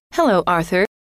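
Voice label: "hello", female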